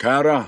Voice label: synthesized